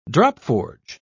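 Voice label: "dropforge", British English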